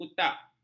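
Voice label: "kutak", Malay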